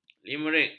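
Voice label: "limerik", Malay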